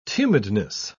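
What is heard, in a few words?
"tumidness", British English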